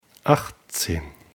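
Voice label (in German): "achtzehn", German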